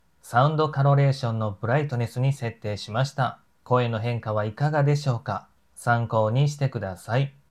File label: maono wireless microphone